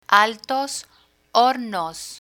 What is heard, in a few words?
"altos hornos", Spanish